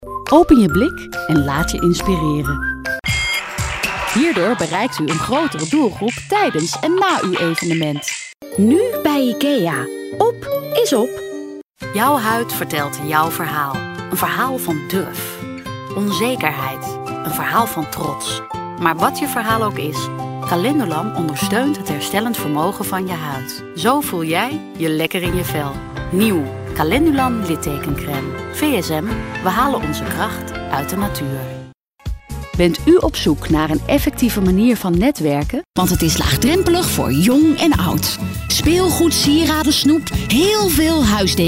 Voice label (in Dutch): commercial compilatie